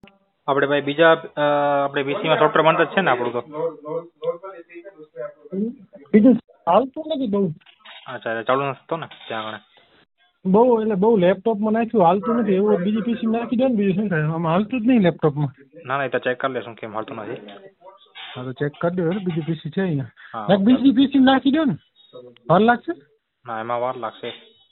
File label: Call recording